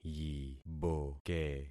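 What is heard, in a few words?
"yiboke", concatenated syllables; Affixing experiment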